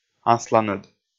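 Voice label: "асланыд", Komi, male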